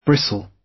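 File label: "bristle", British; Flora and fauna, agriculture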